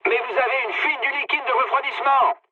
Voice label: damaged water mood med, speech fr; War Thunder